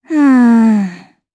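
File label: Vox Think, Japanese; Cecilia; kingsraid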